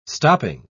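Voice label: "stopping", English, British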